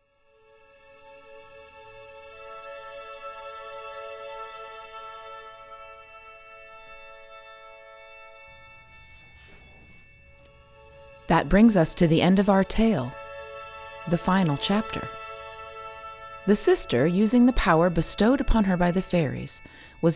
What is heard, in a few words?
Narration; Final Narration rig lyra